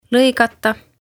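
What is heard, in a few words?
"Loigata", Estonian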